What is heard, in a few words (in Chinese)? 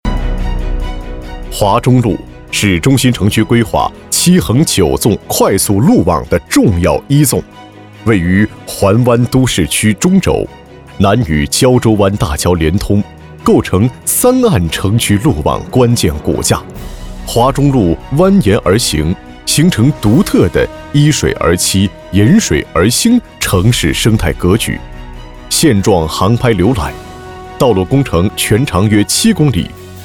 男 工程解说 语音稿